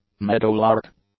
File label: "meadowlark", English; nouns